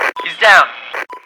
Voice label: Radio Chatter He's Down